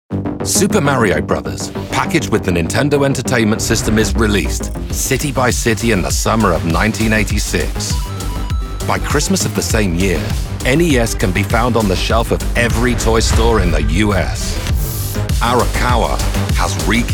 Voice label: variety narration